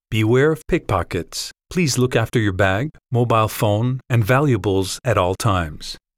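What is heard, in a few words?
Voix Off Voice Over, bilingue Américain Français; uk; Pick-Pockets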